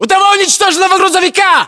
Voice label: landmark near truck destroyed, ru

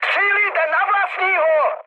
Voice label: player friendly fire, speech cze, mood high; War Thunder

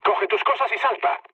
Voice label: bailout, mood low, speech; War Thunder

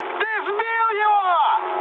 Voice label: player killed enemy, mood high, speech ru

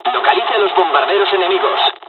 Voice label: night raid, dialogs spanish; War Thunder

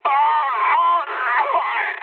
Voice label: damaged pilot, mood high, speech; War Thunder

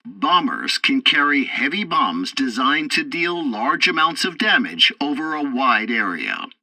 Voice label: tutorial bomber intro, english; War Thunder

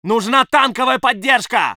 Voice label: voice sov infantry

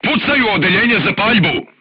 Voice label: voice message fire in tank, crew tanks serbian

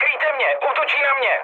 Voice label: aircraft damaged, speech cze, mood high; War Thunder

